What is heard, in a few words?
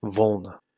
"volna", Macedonian